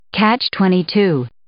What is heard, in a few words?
"catch-22", English